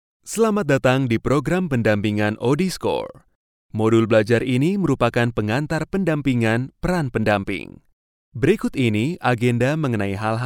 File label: indonesian e-learning